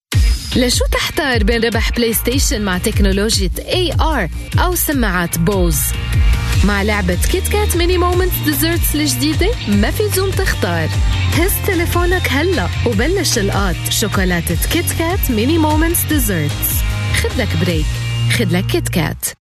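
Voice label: Arabic, female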